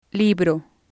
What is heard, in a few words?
"libro", spanish